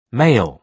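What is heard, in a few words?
"mail", British English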